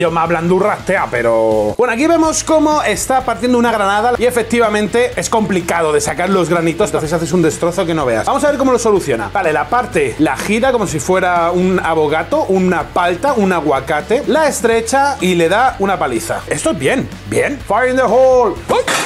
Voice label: test audio spanish